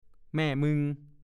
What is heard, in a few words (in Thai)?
"แม่มึง", ภาษาโคราช; ภรรยา เป็นคำที่สามีเรียกภรรยา อีกความหมายหนึ่งเป็นคำด่าแม่